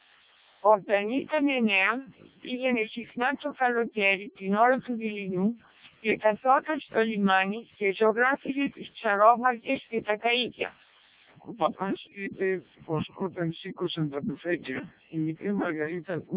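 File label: Greek speech, CODEC2 vocoder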